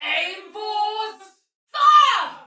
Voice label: Attack Tech, Soldier Female, Battle; Dialog; Barks; Sinecure

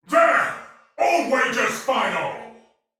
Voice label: See player shout, male soldier; Dialog; Barks; Battle; Sinecure

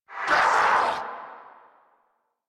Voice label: Pain; Warframe; Zariman; Zariman Wraith

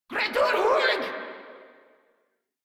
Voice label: Reload Grineer Female; Warframe

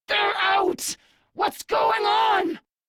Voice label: Intel Inf Released Grineer Fem en; Warframe; Infested Intro Quest

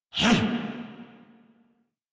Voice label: Threatened Grineer Male

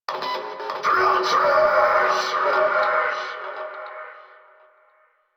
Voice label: Yell From Skiff; en; Warframe; Eidolon; Grineer

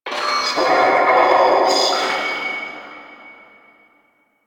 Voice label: Vocal Quest Loudspeaker, Town Escape; Quests; Duviri; Ambience

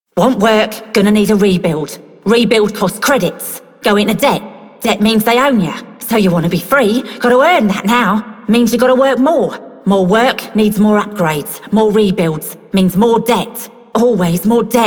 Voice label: Female Worker, Female One, en; Solaris Venus

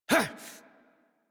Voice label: Horse Sprint Adult; Warframe; Duviri

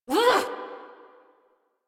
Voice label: Adult Operator, Melee Swing, en; New War

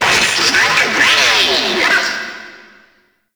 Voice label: Friendly Hack Vocal; Warframe; Entrati; Necramech Hack

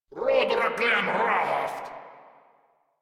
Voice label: Attack, Grineer Male; Warframe

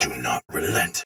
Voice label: Attack Normal Soldier Sword Agent Male, Battle; Dialog; Barks; Melee; Sinecure

